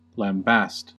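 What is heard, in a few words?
"lambaste", American English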